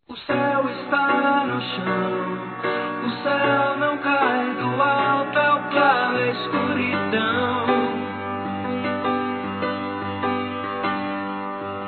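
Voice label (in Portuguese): ANO NOVO P FILHA, Feminino; Telemensagens; Natal e Ano novo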